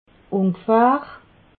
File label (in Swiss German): "ungefahr", alsace